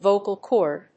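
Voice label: "Vocal cord", English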